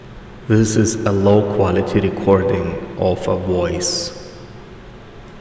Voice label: example reverb 16khz